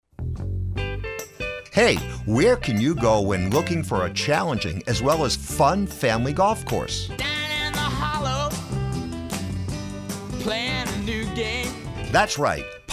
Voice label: Ad; Pine Hollow